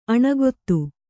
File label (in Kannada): "ಅನುಗತಿ", Kannada